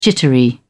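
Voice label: "jittery", British English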